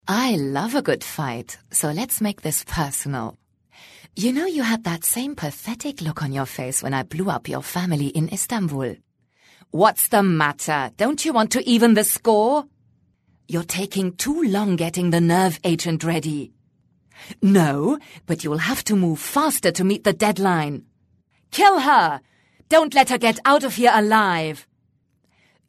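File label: German English, female, character; accent of germany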